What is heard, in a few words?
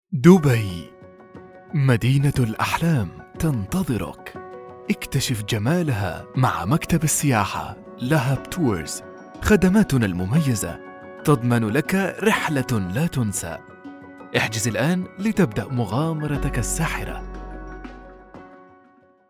Fusha; Dubai; Fusha1 Dubai